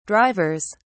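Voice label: "drivers", British English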